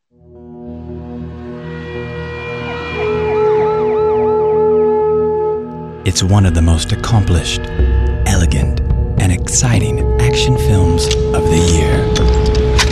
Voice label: movie trailer